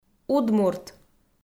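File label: "удмурт", Komi, female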